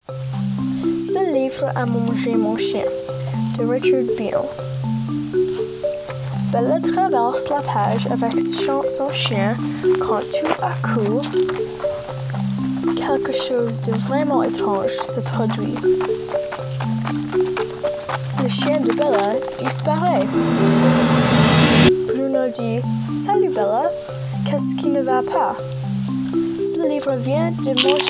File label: Childrens Book Reading